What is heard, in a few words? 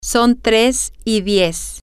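its 310, spanish, female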